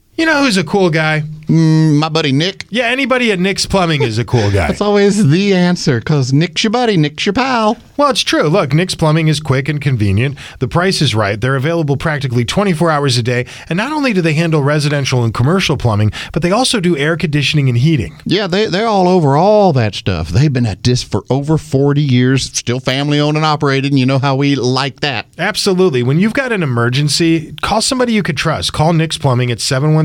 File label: RADIO AD; nicks plumbing radio ads